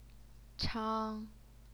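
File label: "chang", Mandarin Chinese, first tone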